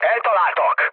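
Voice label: Hungarian aircraft damaged mood low, speech; War Thunder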